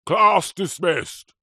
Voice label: human old grumpy man english teacher says class dismissed; zapsplat